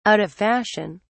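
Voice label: "out of fashion", British English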